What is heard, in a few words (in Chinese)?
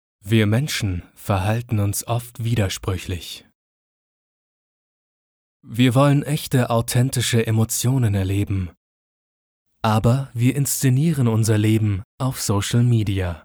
德语男 叙述